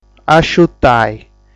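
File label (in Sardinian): "Asciutai", Sardinian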